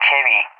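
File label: "Chevy", English, SPAIN; CallSign; DCS; Allied Flight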